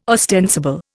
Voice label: "ostensible", Indian

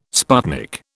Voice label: "sputnik", British

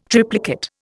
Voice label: "triplicate", Indian

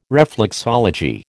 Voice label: "reflexology", American

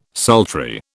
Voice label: "sultry", British

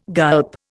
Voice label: "gulp", Indian

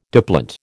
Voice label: "diplont", American

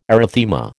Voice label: "erythema", American

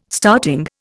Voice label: "starting", Indian

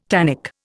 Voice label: "tannic", Indian

